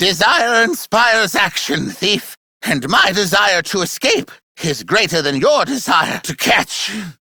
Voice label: Treasure Taunt, Corpus Aristocrat Manager, Battle, Barks, Dialog; Warframe; Treasurer